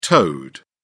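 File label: "toed", British English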